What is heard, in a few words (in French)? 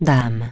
"Queen", French; dame